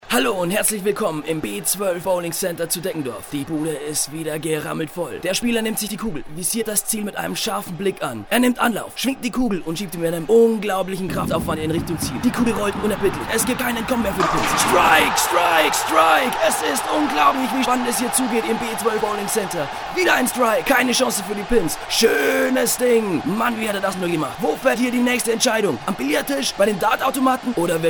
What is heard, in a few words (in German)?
Werbung